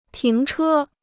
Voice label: "停车", Chinese

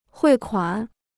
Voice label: "汇款", Chinese

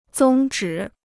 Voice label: "宗旨", Chinese

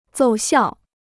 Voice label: "奏效", Chinese

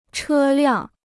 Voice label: "车辆", Chinese

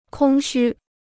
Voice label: "空虚", Chinese; kōng xū; hollow; emptiness

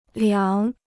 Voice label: "凉", Chinese

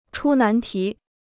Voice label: "出难题", Chinese

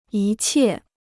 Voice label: "一切", Chinese

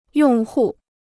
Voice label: "用户", Chinese

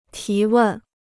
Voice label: "提问", Chinese

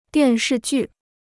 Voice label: "电视剧", Chinese

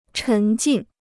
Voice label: "沉浸", Chinese

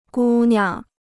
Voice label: "姑娘", Chinese

